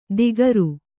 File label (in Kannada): "ದಿಗರು", Kannada